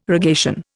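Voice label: "rogation", Indian English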